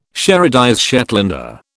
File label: "sherardize Shetlander", British